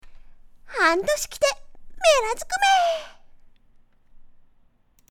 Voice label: 女样音

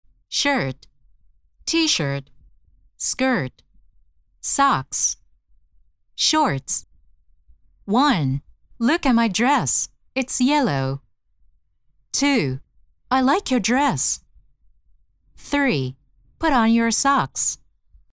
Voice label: 英文 课件 单词 句子

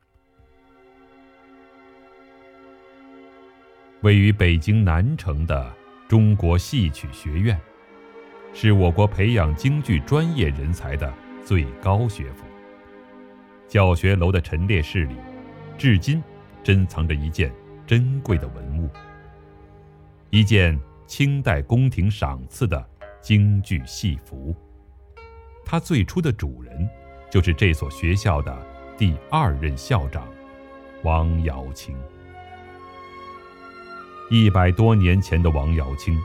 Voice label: 纪录片京剧

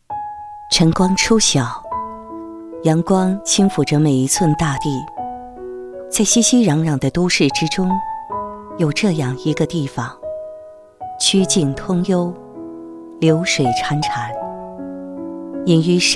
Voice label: 小资文艺范; 会馆

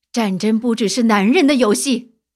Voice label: 女剑士; 幽红

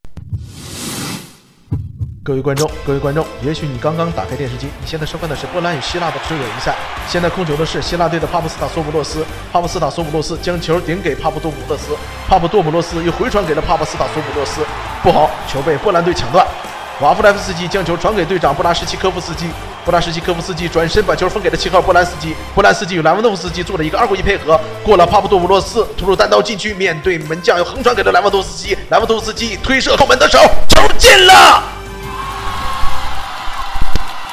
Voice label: 配音

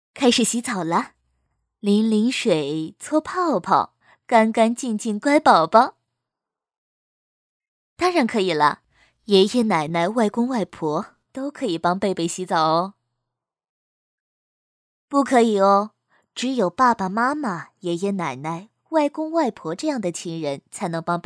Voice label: 妈妈的声音

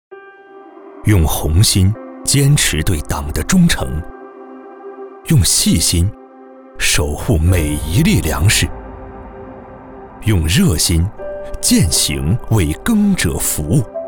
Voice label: 大气走心宣传

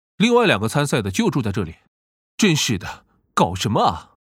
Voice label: 角色年轻角色

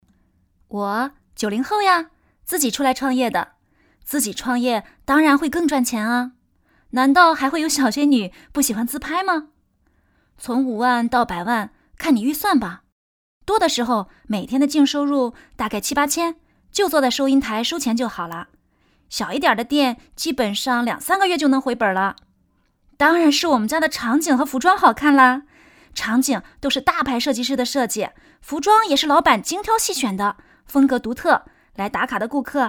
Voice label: 抖音 广告

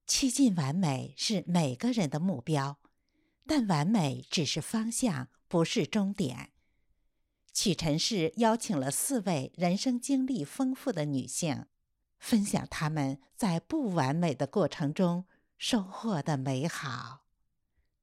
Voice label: 促销 广告; 屈臣氏

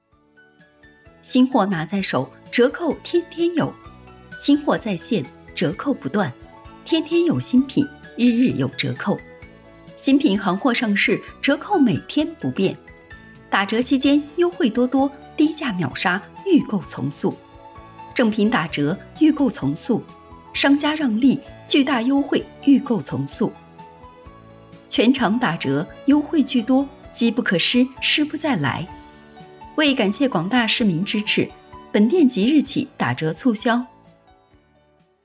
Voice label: 广告促销

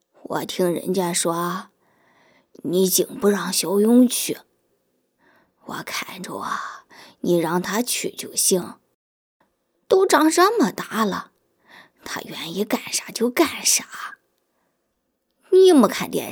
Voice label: 山东方言奶奶

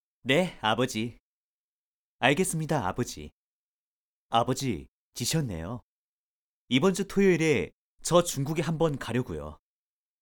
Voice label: 韩语男情感真诚写给父亲的信

